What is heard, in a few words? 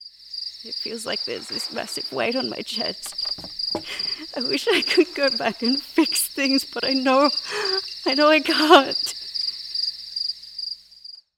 VIDEOGAME SAMPLE EMOTIONAL F AU TEEN; australian